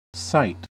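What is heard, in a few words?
"site", American English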